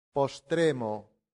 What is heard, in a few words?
"postremo", latin; santillana